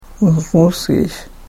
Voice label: "musmusiish", Karuk